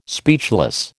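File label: "speechless", American English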